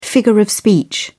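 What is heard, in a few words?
"figure of speech", English, British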